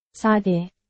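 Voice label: Burmese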